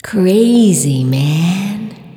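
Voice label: Krazy Man Spring Reverb, Swingin Chiks Spoken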